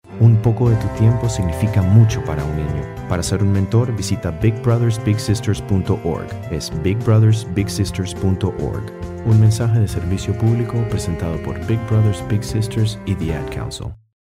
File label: spanish dialect